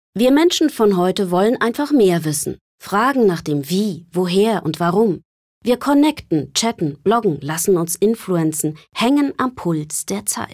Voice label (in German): Verbindlich; Foodprint